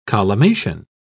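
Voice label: "collimation", British English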